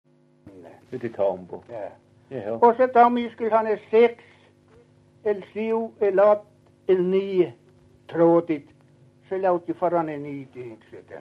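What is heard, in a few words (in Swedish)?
Överkalix Norrbotten, dialekter, svenska sagor; Folkminnen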